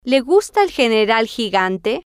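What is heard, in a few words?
el gorila juega mucho con gatos grises, Spanish, female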